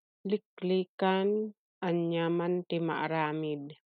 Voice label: Ilocano; avoided at all costs - liklikan anya man ti maaramid